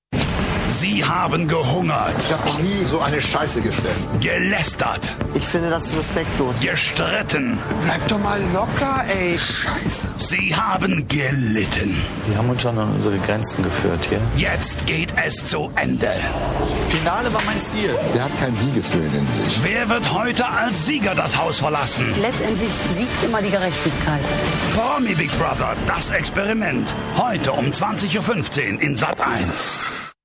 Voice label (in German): Trailer dirty; Hörproben; Promi Big Brother